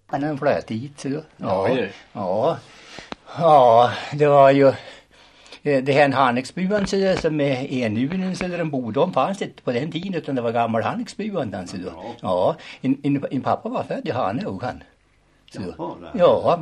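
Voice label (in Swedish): Häggenås Lit Jämtland, Svenska sagor, dialekter; Folkminnen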